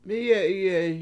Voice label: mIye7iye7i You Have Been Walking Today, Washo

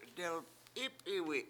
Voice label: "delp7Ip7iwi7", Washo; smells like skunk

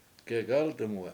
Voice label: dive in, Washo; gegAltumwe7

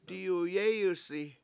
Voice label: I shake it, Washo; diyuyEEyusi

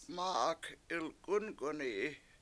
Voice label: mA7ag 7ilk7Unk7uni7i The Stick Is Bent, Washo

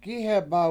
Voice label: "gIheba", Washo; On His Head

